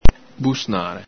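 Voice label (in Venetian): "busnare", Veneto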